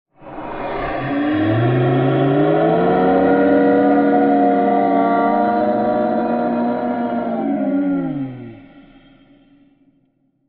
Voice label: Demonic Voice; Carnevil